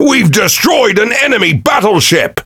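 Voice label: Pirate Speech Destroy Enemy Battleship, English pirate; World Of Warships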